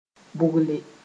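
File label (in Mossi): "bʋgle", Mooré; soften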